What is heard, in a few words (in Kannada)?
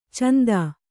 "ಛಂದ", Kannada